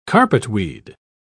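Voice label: "carpetweed", English, British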